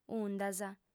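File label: "undas", Sardinian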